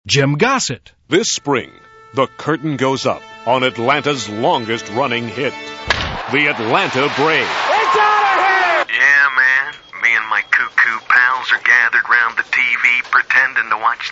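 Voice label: Male Talent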